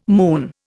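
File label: "moon", Indian English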